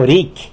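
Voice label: "there", Tlacolula Zapotec; ricy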